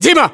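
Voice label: "dima", battlechatter, Russian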